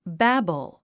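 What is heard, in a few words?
"babble", English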